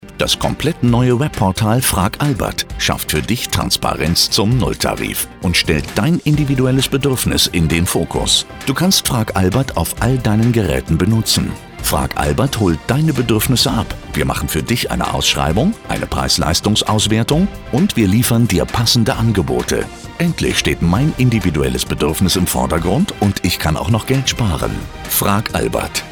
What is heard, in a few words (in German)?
werbung internet; WEBPORTAL; FRAGALBERT